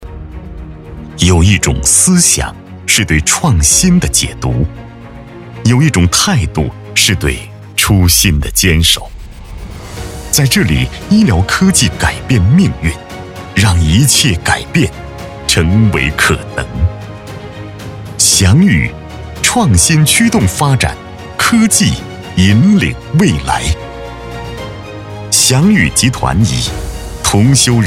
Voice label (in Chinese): 高端 男 企业 宣传 大气 恢弘; 翔宇之光